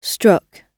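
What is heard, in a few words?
"struck", British English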